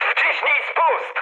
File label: attack position mood high, speech pol; War Thunder